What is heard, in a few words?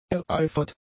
"ereifert", German; adjectives